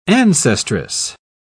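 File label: "ancestress", English, British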